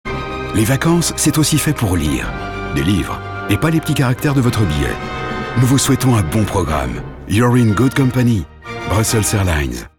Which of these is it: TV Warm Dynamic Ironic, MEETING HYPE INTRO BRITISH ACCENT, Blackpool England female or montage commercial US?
TV Warm Dynamic Ironic